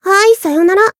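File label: Voice finish Japanese; harle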